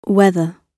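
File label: "whether", British English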